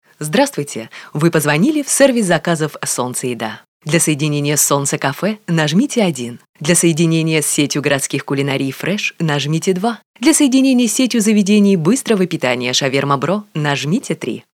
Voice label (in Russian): golosovoe privetstvie